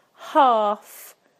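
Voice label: "half", British English; PictureDictionary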